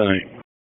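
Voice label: Raleigh Police Special Operations Tactical, p25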